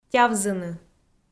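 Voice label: "тявзыны", Komi, female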